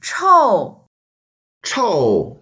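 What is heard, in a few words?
"chou4", Mandarin Chinese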